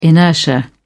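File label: "inertia", British English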